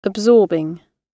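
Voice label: "absorbing", British English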